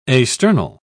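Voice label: "asternal", British English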